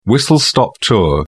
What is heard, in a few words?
"whistle-stop tour", uk